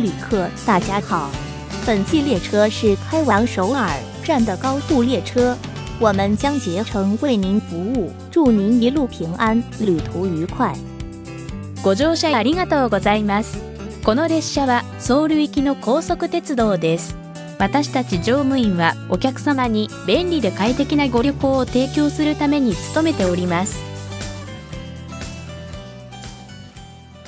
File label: departure to seoul, Train Announce